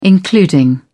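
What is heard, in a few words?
"including", British English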